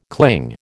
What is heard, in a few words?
"cling", American